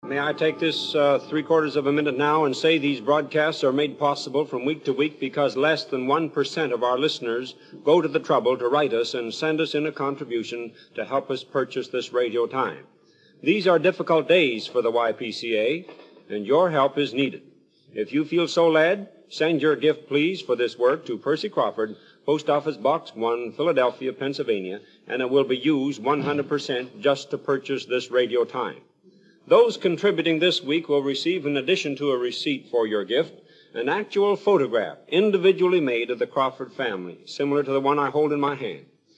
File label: Fundraising Announcement; YPCA